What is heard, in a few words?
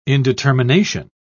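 "indetermination", British English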